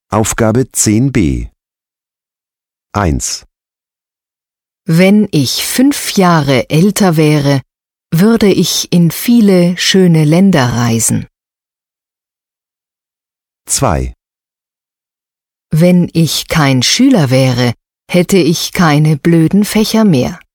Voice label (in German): e-learning logisch